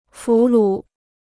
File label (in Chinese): "俘虏", Chinese